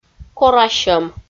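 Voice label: "корасьӧм", Komi, female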